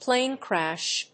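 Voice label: "plane crash", English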